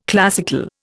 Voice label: "classical", Indian